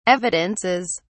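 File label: "evidences", British English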